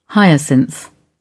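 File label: "hyacinth", British English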